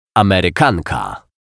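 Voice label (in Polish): "Amerykanka", Polish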